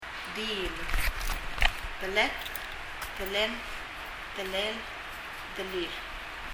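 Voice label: "diil" Palauan, abdomen; 腹; 発音; 身体の各部位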